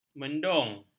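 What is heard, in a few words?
"mendung", Malay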